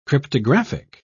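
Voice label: "cryptographic", British English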